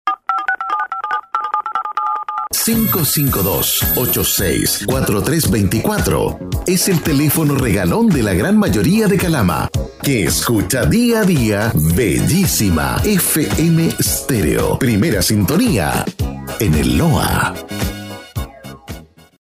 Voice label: TELEFONO RADIO FM

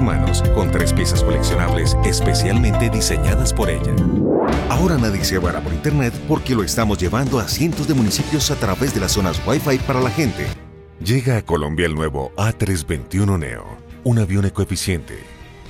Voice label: Reel comercial

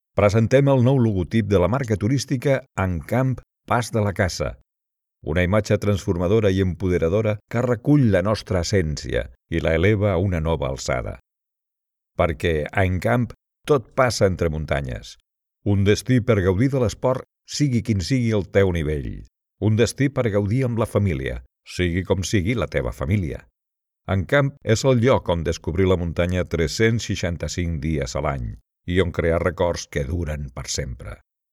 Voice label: Catalán

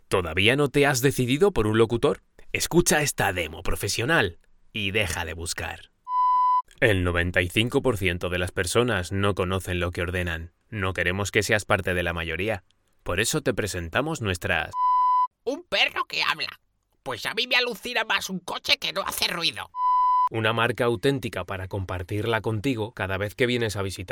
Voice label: publicidad web

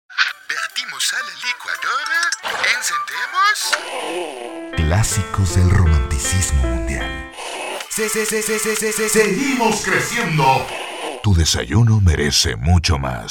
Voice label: COMERCIAL RADIO

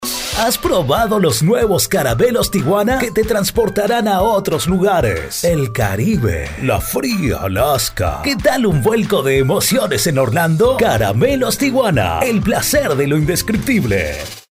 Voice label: publi

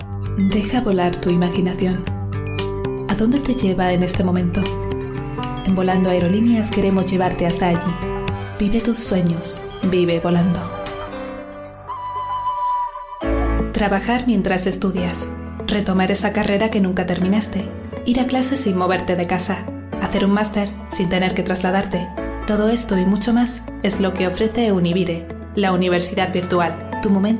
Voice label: COMERCIAL

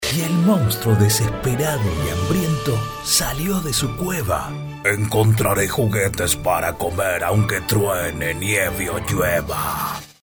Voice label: actuado

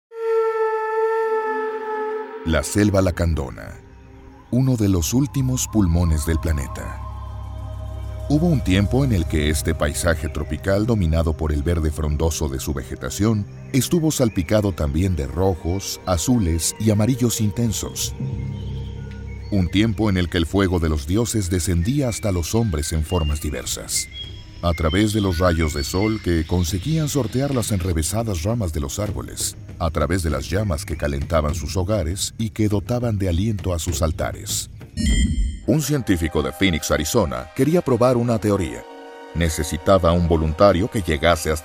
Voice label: DOCUMENTALES

